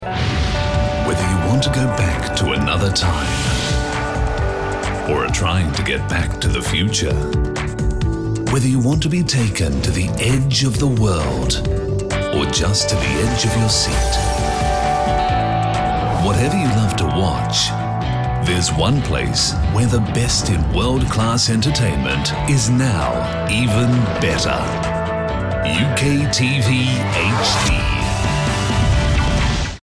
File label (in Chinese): 外籍英男 英国电视台广告